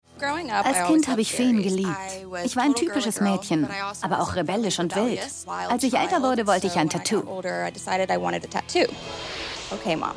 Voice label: Voice Over young Girl; Tattoo Nightmares